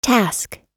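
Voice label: "task", English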